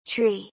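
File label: "tree", English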